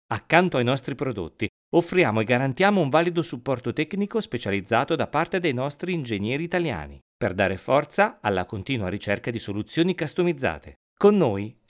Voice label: ITALIAN